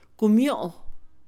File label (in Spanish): "abeja", Mixe, Mujer; Animales; INALI